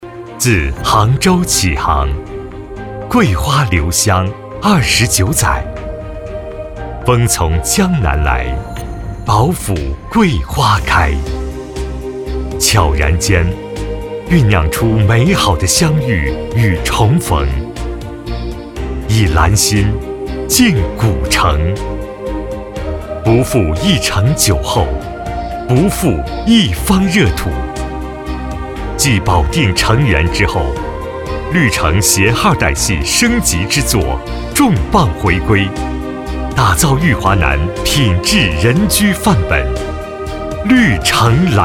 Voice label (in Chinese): 房地产宣传; 绿城